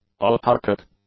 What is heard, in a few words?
"autarchic", English; adjectives